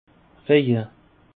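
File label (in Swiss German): "reje", Alsatian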